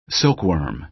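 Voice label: "silkworm", American English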